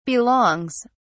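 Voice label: "belongs", British English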